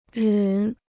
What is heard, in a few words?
"忍", Chinese